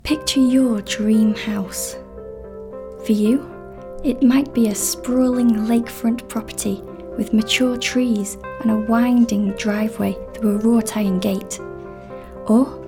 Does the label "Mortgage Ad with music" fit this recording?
Yes